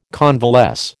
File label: "convalesce", American